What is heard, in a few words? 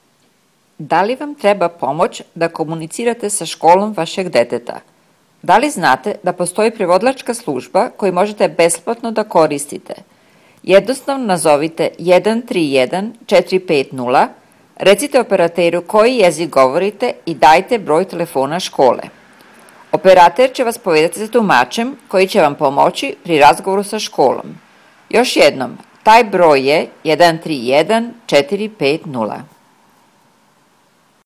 serbian; public schools; going to a public school